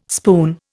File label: "spoon", Indian